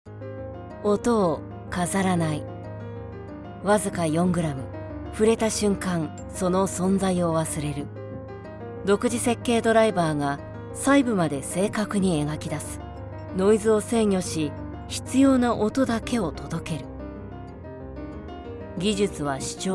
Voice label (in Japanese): クール・ドライ; VOICE SAMPLE